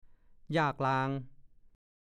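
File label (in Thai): "ยากลาง" ภาษาโคราช; ยาเส้นที่ทำจากใบกลางต้นยา มีรสชาติดีนุ่มนวล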